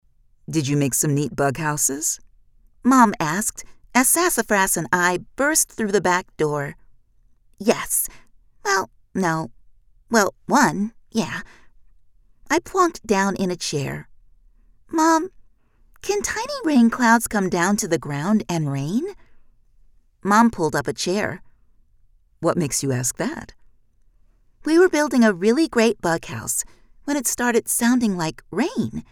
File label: Children S Book